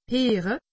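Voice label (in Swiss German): "heere", Alsatian; medecine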